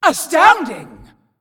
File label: Female Demon Wow